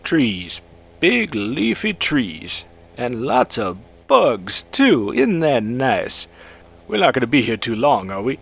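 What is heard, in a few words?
bored; nwnds audio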